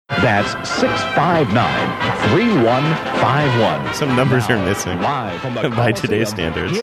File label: saying some numbers are missing radio clip